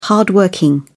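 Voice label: "hard working", British English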